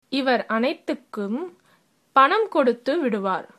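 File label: pay, tamil, female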